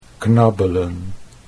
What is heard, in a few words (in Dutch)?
"knabbelen", Dutch